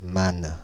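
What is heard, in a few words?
"Mane", French; Paris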